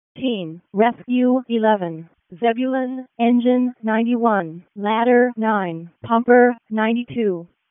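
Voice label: Fire Department Alert, p25; wake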